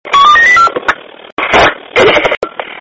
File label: police wireless voice